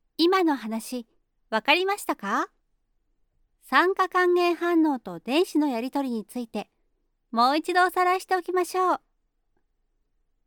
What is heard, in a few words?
female; 明るい